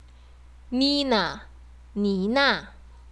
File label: Nina in chinese